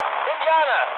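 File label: German enemy detected mood med, speech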